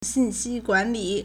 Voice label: "xin4xi2guan3li3", Chinese